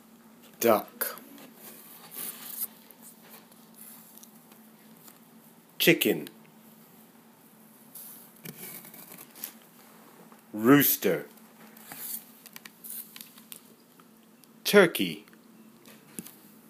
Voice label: animals english